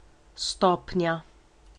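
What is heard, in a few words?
level, Basque; gcompris-data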